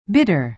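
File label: "bitter", American English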